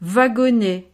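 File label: "wagonnet", French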